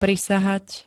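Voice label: "prisahať", Slovak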